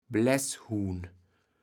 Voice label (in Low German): "Bläßhuhn", kaevels platt